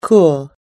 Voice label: "cool", US English